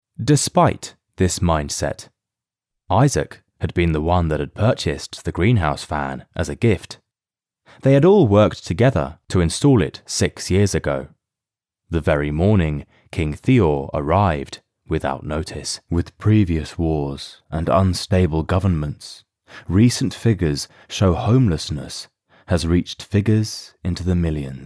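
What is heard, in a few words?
English male; lektoring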